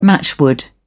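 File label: "matchwood", British English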